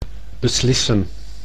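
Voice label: "beslissen", Dutch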